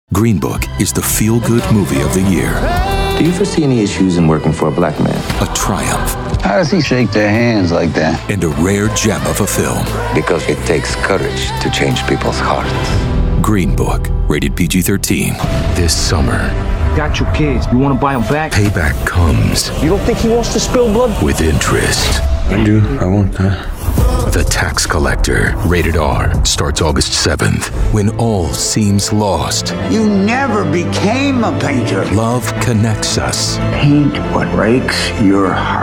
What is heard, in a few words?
trailers men